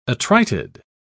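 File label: "attrited", British English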